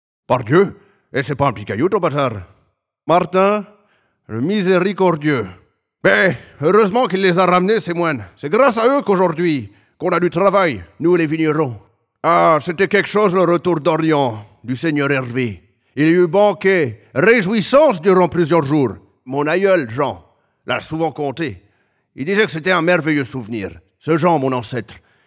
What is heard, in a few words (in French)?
Voix SÉRIEUSE du Commissaire-Priseur